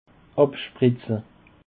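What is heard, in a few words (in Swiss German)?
"abspritze", Alsatian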